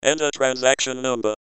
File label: web speech enter transaction number, voices